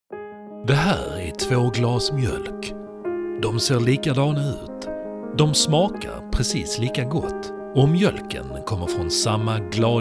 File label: swedish commercial